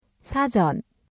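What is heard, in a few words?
"사전", Korean; 辞書，字引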